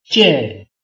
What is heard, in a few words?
"jie4", Chinese